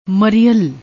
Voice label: "marial", Punjabi; ਮਰੀਅਲ